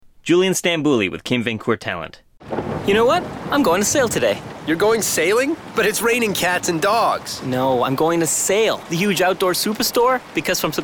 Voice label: Commercial